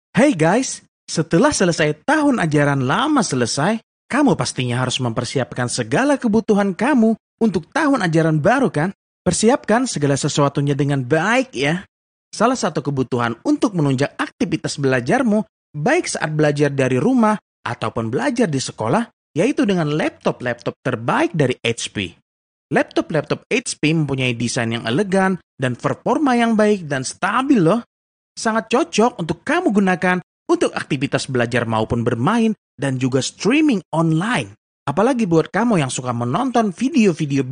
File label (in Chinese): 印尼语男声